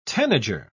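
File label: "tanager", British English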